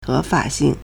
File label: "he2fa3xing4", Chinese; 合法性 héfǎxìng; legitimacy